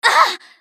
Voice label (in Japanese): ソルジャー女 被ダメージ; リボルドウェソルジャー